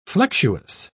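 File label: "flexuous", British English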